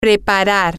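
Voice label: to Prepare, Spanish, female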